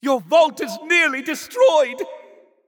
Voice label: Announcer Match Under Attack Vault Nearly Destroyed; Jester; paladins